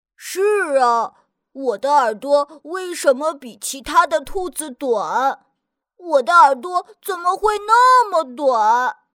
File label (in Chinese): 童声 男童结实力大的牛壮壮